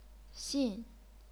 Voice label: "xin4", Chinese pinyin